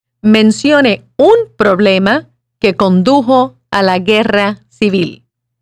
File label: Spanish